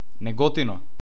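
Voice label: "Negotino", Macedonian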